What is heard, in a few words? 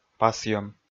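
"пасйӧм", Komi, male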